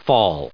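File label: "fall", US English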